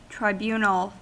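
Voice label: "tribunal", US English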